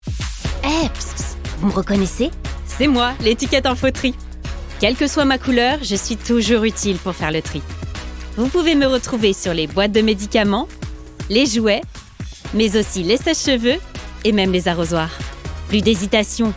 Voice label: female french commercial; l info tri